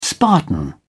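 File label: "spartan", British English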